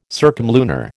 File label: "circumlunar", American